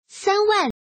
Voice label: woman